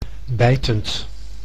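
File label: "bijtend", Dutch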